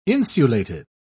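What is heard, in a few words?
"insulated", British English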